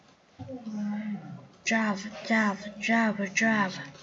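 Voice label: "jab", spoken word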